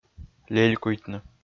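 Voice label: "лелькуйтны", Komi, male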